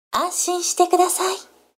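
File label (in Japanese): female, 高音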